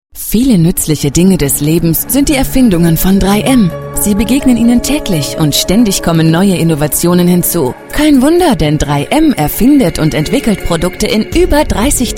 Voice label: germany voice over female narrator